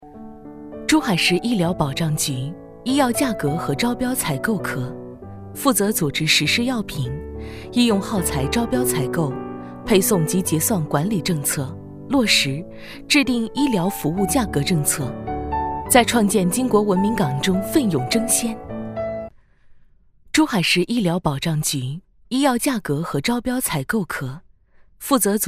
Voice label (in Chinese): 宣传 珠海市, 女